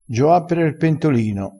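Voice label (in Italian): "gioa per", vocabolario dialettale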